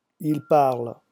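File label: "ils parlent", French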